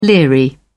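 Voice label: "leery", British English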